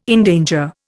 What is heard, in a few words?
"endanger", Indian